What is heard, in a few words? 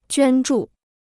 "捐助", Chinese